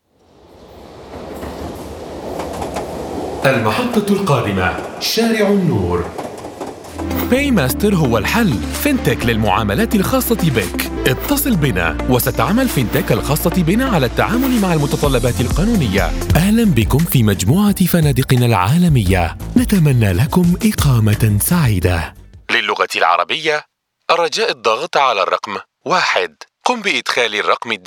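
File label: male, Standard Arabic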